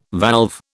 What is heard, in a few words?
"valve", British